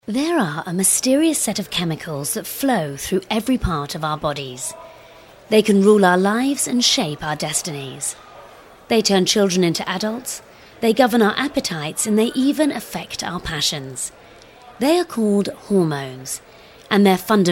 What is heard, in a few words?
Narration; Hormones; Vocal Point